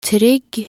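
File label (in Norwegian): "trygg" Norwegian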